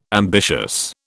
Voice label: "ambitious", British